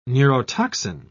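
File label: "neurotoxin", British English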